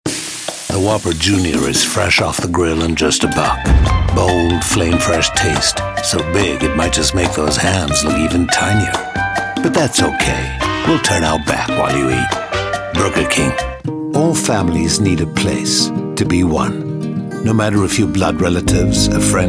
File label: Commercial